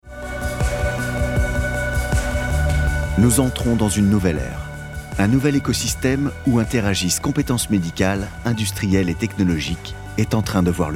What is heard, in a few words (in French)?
Film Corporate Ton callme serein posé Voix; ASTELLAS